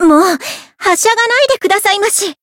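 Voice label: encounter fear, Japanese; sincere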